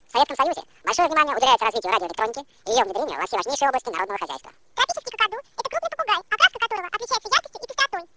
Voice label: speech VSELP